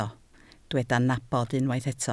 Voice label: "nabod", Welsh